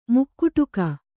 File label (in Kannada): "ಮುಕುಡಕ", Kannada